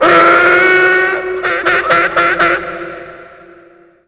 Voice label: tusken echo; Star Wars Obi-Wan; XBOX